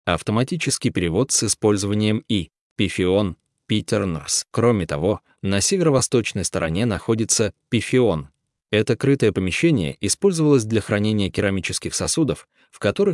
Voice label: russian, Аудиогид; Πιθεώνας; Pitheonas